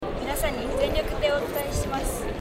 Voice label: SONY shotgun ECM-B1M